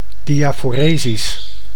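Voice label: "diaphoresis", Dutch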